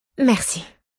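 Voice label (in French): "merci", French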